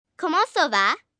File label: French How Are You, Questions, 1 girl, 9 yrs., French; Talking